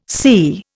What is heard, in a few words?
"psi", Indian; Dictionary